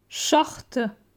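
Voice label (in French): "Short", French; phonetique; la graphie ch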